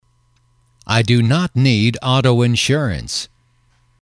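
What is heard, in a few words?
i do not need auto insurance, English, male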